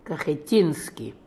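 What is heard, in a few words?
"Kakheteenian", pronunciations; Fourth Way; Beelzebub pronounciations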